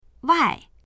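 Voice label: "wai4", Mandarin Chinese; 外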